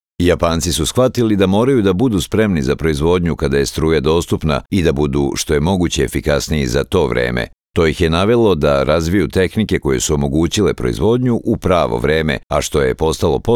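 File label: Serbian, male, elearning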